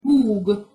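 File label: "hug", Hungarian pronunciation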